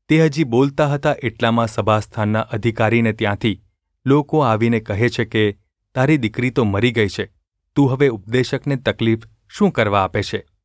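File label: gu ulb mrk, Gujarati; mrk 5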